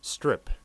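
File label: "strip", US English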